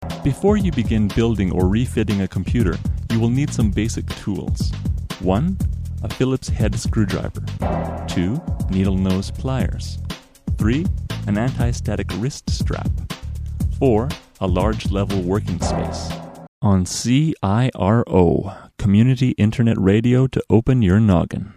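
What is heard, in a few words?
narration, english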